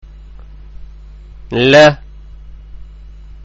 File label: "Laam", arabic; arabic-malayalam; അക്ഷരമാല